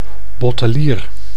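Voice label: "bottelier", Dutch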